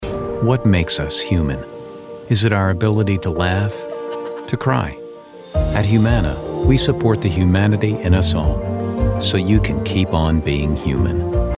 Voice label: Commercial Gentle Soft Spoken Calm